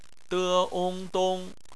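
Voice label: "dong" bopomofo, Chinese; phonetics; bpmf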